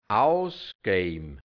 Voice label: "ausge(i)bm", hianzisch Mundart, Burgenland; ausgeben

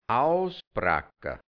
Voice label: "ausbracka", hianzisch Mundart, Burgenland; aushauen; versohlen

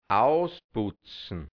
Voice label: "ausputzn", hianzisch Mundart Burgenland; ausmustern; gut reinigen

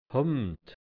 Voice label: "Humd", Hianzisch Mundart; m Hund

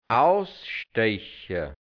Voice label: "ausste(i)cha", hianzisch, Dialekt, Burgenland; ausstechen; übertrumpfen; verdrängen

